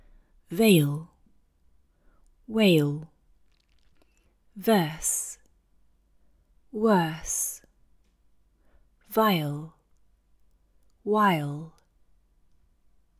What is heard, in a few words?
Russian, British accent; English Pronunciation Errors made by Russian Speakers